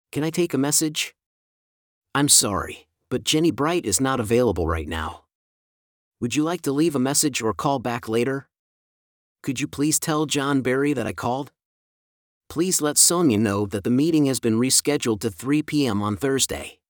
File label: Telephone talk